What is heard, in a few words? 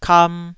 "kam2", taiwanese syllables